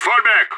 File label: fallback, radio; SAS